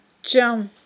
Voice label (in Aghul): "ччам", aghul